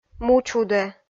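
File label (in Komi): "мучудӧ", Komi, female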